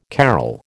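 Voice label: "carol", American English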